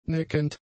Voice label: "neckend", German; adjectives

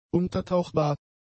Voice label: "untertauchbar", German; adjectives

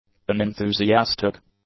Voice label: "unenthusiastic", english; adjectives

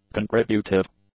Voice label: "contributive", English; adjectives

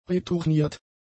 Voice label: "retourniert", German; adjectives

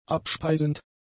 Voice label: "abspeisend", German; adjectives

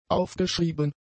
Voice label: "aufgeschrieben", German; adjectives

